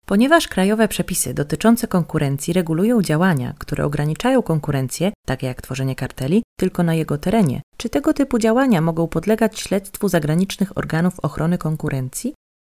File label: Drama Polish female